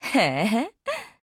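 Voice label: ACCEPT Japanese; ColtWalker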